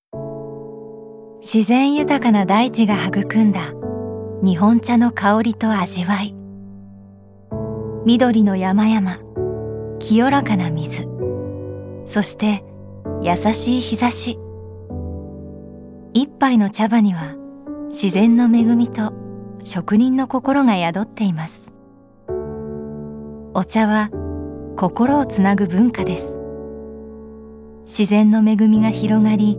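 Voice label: Calm Narration, Japanese; 番組ナレーション; 「そんじょそこら商店街 再生の物語」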